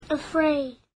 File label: afraid